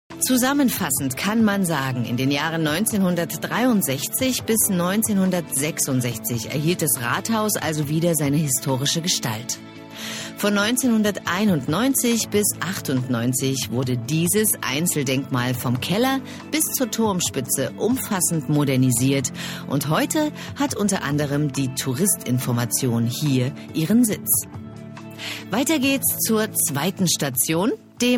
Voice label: Audioguide; Templin

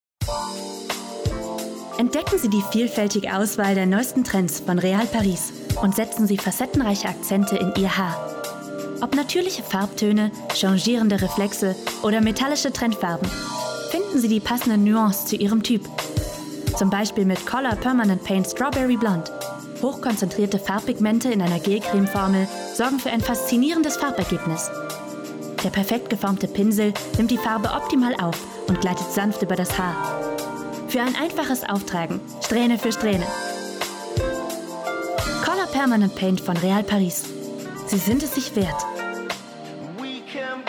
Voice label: Werbung MUSIK

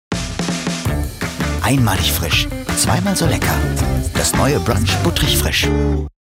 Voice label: sprachprobe werbung off brunch kurz

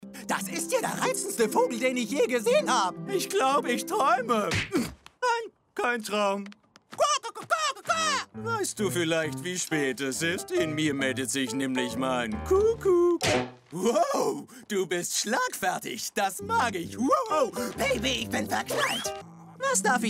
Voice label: trickstimme gesang; looney toons

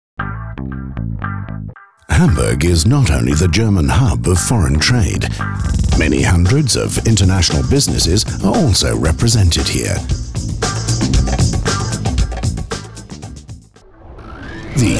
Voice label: uk englisch

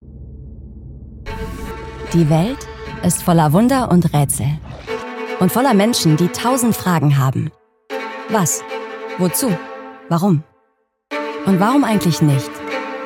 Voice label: Imagefilm; TUHH

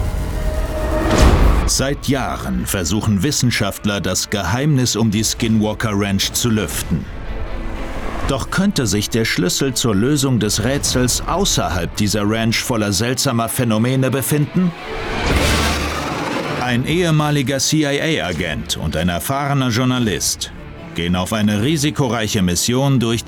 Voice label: Off Sprecher, Doku, Off, German; Beyond Skinwalker Ranch; Hessisch, Berlinerisch; Germany

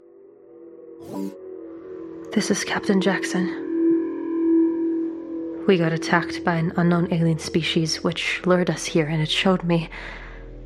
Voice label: Synchron Monolog Englisch erschuettert

